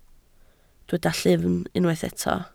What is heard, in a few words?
"llyfn", Welsh